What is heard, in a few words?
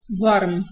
"warm", Proto Germanic